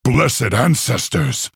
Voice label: male orc wow